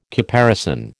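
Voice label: "caparison", American English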